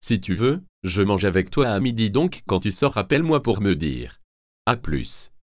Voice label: sandwiches synth